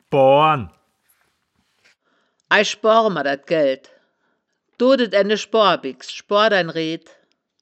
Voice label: "schboaren", wittlicher; das wittlicher woerterbuch; woerterbuch vertonung

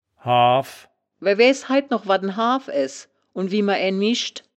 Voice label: "haaw", wittlich

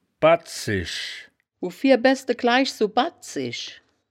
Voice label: "baddzisch", woerterbuch, Wittlich

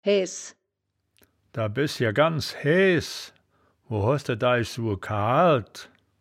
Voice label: "haeaehs", wittlich

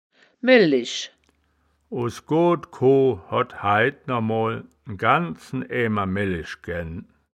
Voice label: "mellisch", wittlich

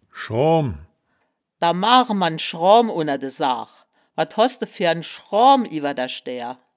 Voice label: "schroam", wittlicher woerterbuch; das wittlicher woerterbuch; woerterbuch vertonung

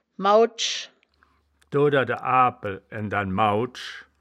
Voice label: "maudsch", Wittlicher; das wittlicher woerterbuch; woerterbuch vertonung

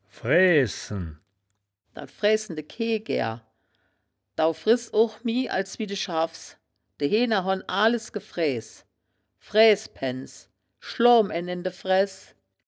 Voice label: "fraeaehsen", wittlicher; fräähsen

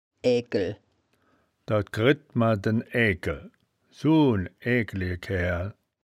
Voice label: "aaegkel", woerterbuch, Wittlich

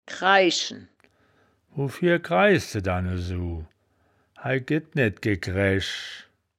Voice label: "kraischen", wittlich

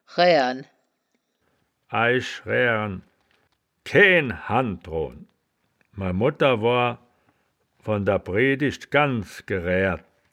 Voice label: "raehren", wittlich; das wittlicher woerterbuch; woerterbuch vertonung

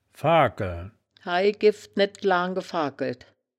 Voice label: "fagkeln", woerterbuch, Wittlich

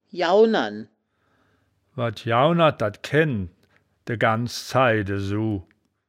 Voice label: "jaunern", wittlich

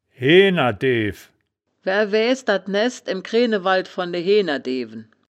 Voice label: "hehnerdew", wittlich

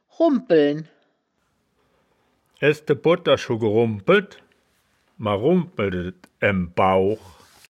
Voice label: "rumm bpeln", wittlich

